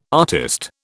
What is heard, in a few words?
"artist", British